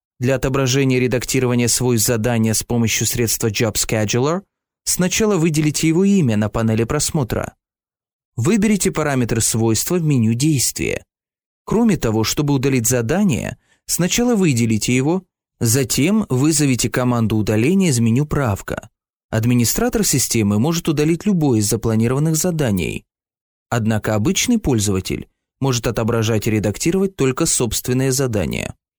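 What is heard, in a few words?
Russian, male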